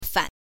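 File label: "fan", Mandarin Chinese, neutral tone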